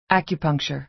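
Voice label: "acupuncture", American English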